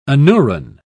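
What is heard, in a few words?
"anuran", British English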